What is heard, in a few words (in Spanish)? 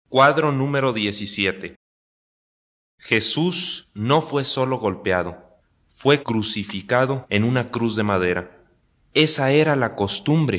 good news spanish mexico